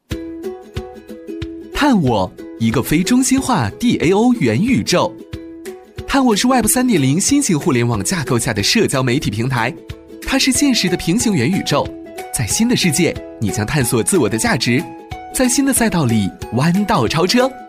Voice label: 男 MG 动感活泼; 元宇宙; 年轻活力; 年轻时尚

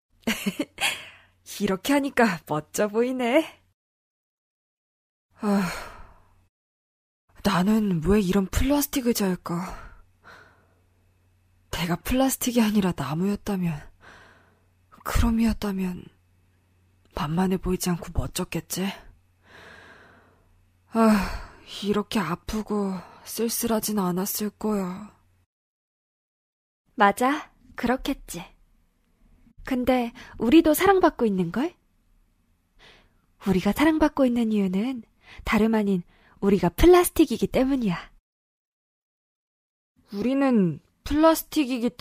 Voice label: 韩语女声 温暖，悲伤

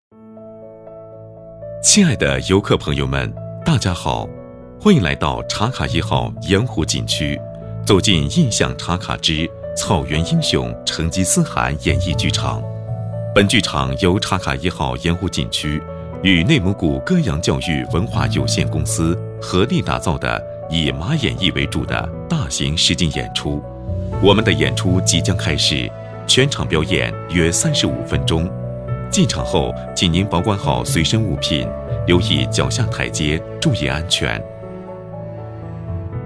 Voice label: 男 景区解说 开场前的温馨提示; 低沉磁性 沉稳大气

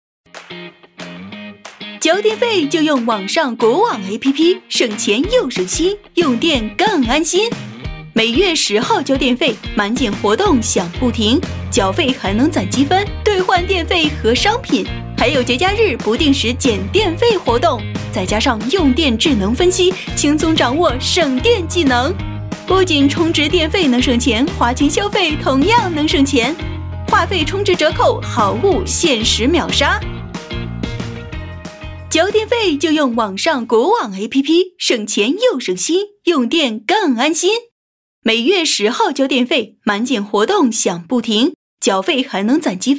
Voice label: 女 MG动画 动感活泼; 轻松活泼; 《网上国网》

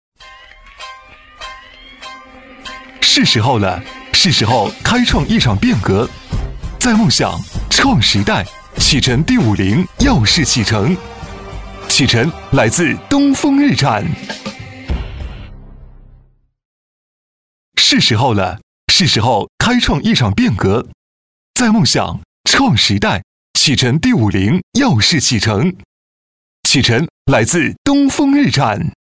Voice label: 男 汽车广告; 东风日产辰汽车; 年轻活力 轻松活泼

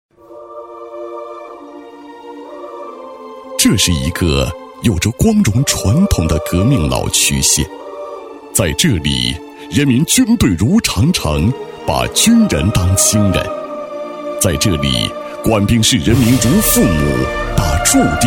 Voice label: 男 大气抒情, 厚重大气 高端大气; 澜沧县; 男123-澜沧县-大气抒情

